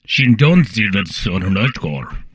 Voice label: humanoid portrait male; Stellaris; humanoids